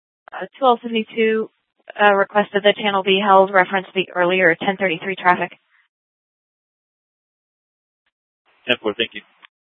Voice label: Dispatch; Scottsdale